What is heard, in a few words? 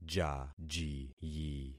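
"jajiyi", concatenated syllables; Affixing experiment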